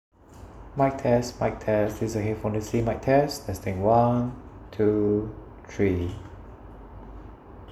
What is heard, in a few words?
Quiet; Samsung Buds Live